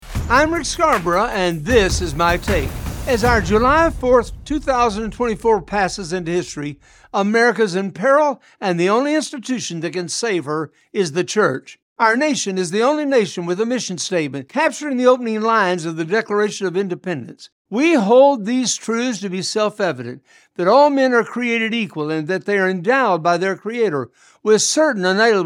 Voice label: radio spot; America in Peril